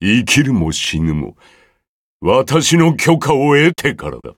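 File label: victory, Japanese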